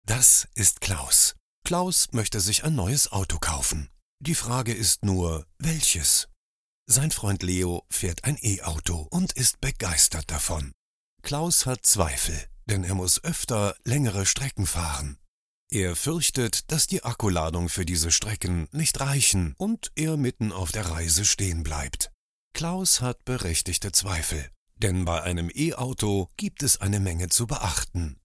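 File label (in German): Erklärvideo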